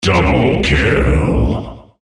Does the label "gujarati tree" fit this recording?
No